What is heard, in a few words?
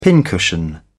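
"pincushion", British English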